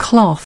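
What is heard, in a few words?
"cloth", English word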